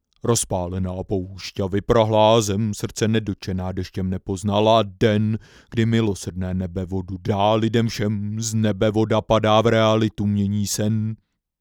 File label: mic test, CMV Prox